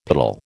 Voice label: "piddle", American